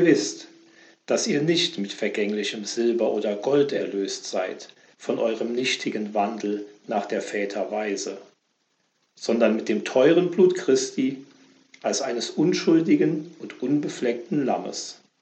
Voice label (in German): Lesung